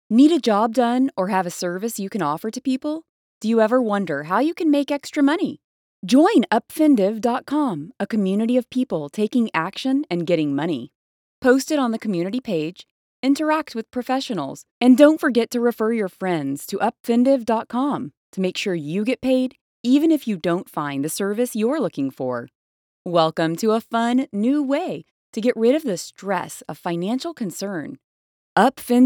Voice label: 外籍英女 宣传片

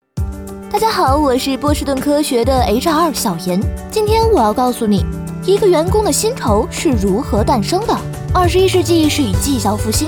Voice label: 女 课件 人物旁白

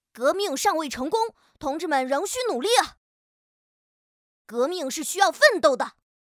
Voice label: 角色 正义男童

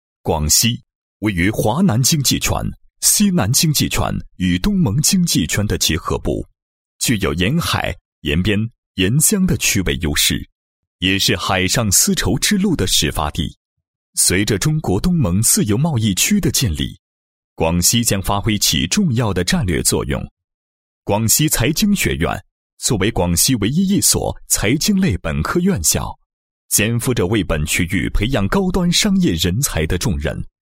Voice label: 男 学校 宣传解说稿, 配音案例; 广西财经学院宣传解说稿

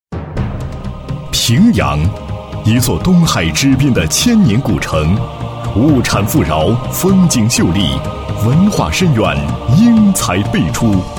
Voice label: 男 地区宣传; 顺溪镇

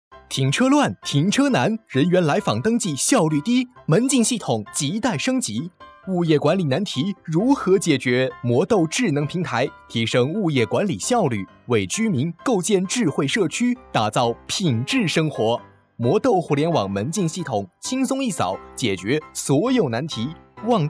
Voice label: 动画文案; 魔豆智能科技

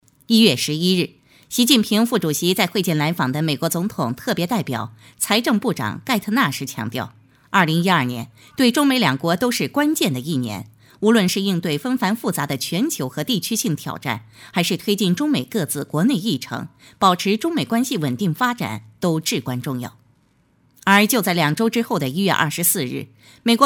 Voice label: 女 新闻样, 国语女声配音; 全能配音

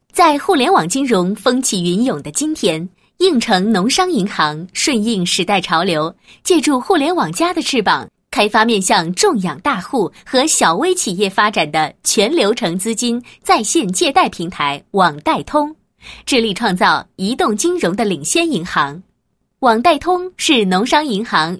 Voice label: 女 企业 金融 互联网; 农商 借贷平台

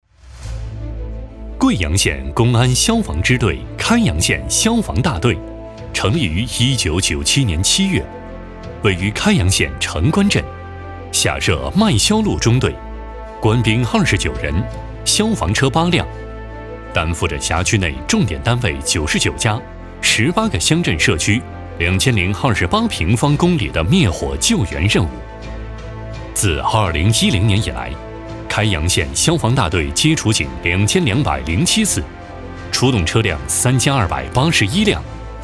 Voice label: 男,大气

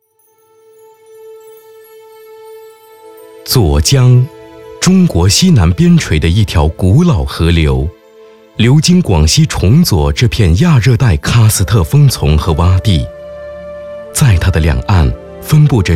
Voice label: 专题 大气; 左江花山岩画节选

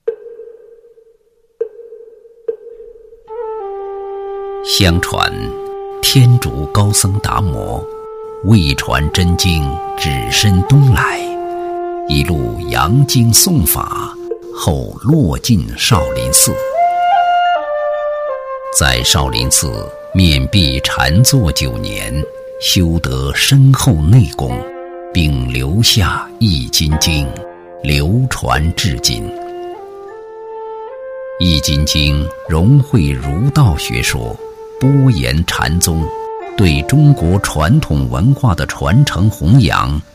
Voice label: 男 纪录片 厚重 典雅 禅意悠悠, 国语男声配音, 磁性浑厚抒情; 易筋经

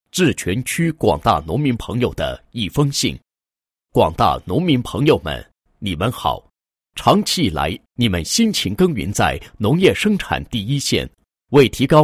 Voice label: 男 政府信函; 致农民朋友的一封信 秸杆禁烧法制宣传

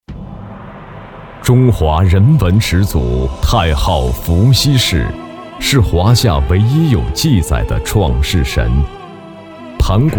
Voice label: 男-记录; 太昊伏羲氏

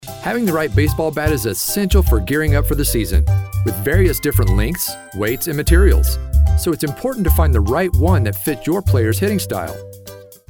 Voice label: 外籍英男 MG风格

